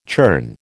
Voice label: "churn", American